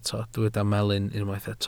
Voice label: "melyn", Welsh